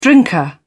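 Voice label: "drinker", British English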